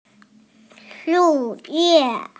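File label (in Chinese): "shu4ye4", Mandarin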